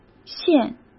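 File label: "陷", Mandarin Chinese; chinese flashcards; hsk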